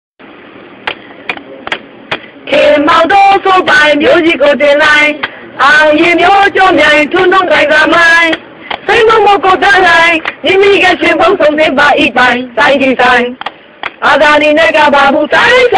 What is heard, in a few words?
business man dialog